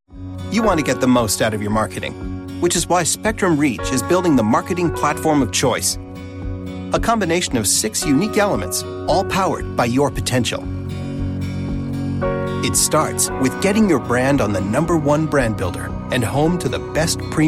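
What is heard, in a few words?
male, Canadian English, e-learning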